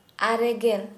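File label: ninety, Santali, female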